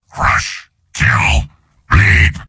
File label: soldier robot; video games; source engine; tf; mvm